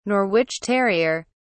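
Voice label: "norwich terrier", British English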